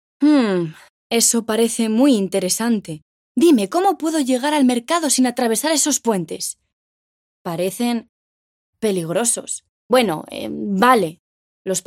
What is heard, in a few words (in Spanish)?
Videojuego guerrera